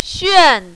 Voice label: "xuan4", mandarin, female